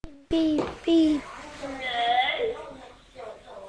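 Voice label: "beam", spoken word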